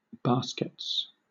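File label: "baskets", English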